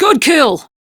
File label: Crew Enemy Defeat, Female; Dialog; Warframe; Railjack Crew; Steel Meridian